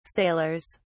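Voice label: "sailors", British English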